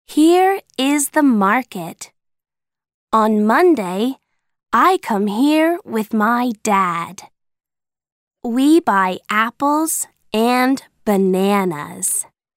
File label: Audio American English; Where I Live